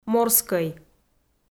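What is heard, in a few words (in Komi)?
"морскӧй", Komi, female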